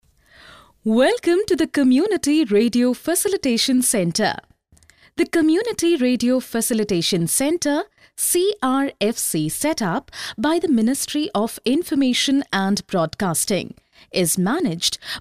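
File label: English, female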